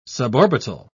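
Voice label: "suborbital", British English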